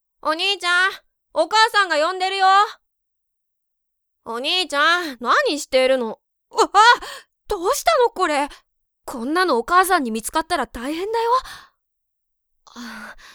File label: sample man